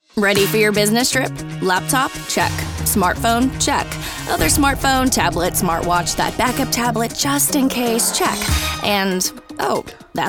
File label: Commercial; TaDa